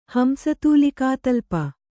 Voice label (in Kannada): "ಹಂಸತೂಲಿಕಾತಲ್ಪ", Kannada